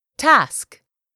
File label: "task", english; 3000 words